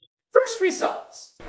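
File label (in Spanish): Voice Acting, Spanish, female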